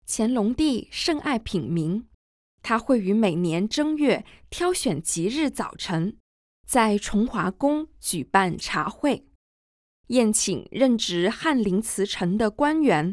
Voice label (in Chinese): Mandarin VO; audio guide; g2-from-dawn-to-dusk